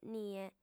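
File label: "nie" Sardinian, female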